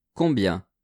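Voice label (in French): "combien", French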